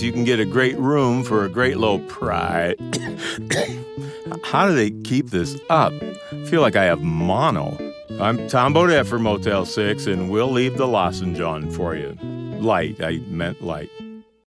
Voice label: Vocal Fry; Motel 6